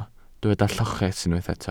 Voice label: "lloches", Welsh